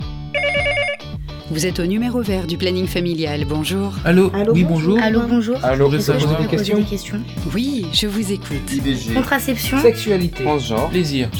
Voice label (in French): Spot publicitaire